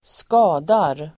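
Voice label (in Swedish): "skadar", Swedish; Uttal: [²sk'a:dar]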